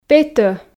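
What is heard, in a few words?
"петӧ", Komi, female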